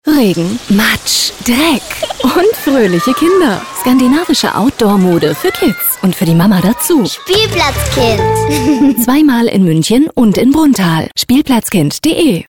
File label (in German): Radiospot